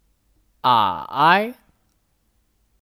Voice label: "矮", Ǎi; Kata sifat; Pendek

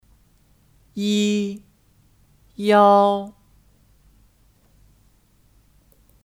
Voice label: "一", Yī; Kata bilangan; Satu

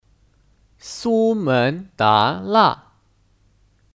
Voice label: "苏门答腊", Sūméndálà

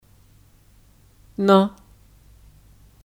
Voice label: "呢", Ne; Logat dalam Bahasa Mandarin

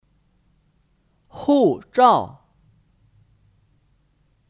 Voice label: "护照", Hùzhào; Kata benda; Paspor

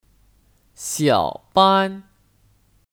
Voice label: "小班", Xiǎobān

